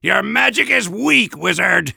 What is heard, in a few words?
Soldier taunts